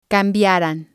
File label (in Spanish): "cambiaran", spanish